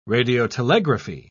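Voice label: "radiotelegraphy", British English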